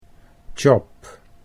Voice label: "chop", Dutch English